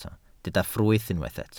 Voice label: "ffrwyth", Welsh